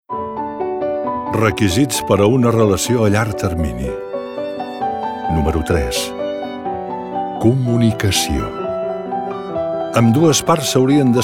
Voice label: male catalan relation comercial; ideasonora